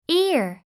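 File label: "ear", English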